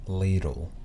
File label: "ladle", anglais